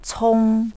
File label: cong, chinese pronunciation; 琮; tsown; jade tube